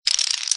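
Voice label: "beatle", Japanese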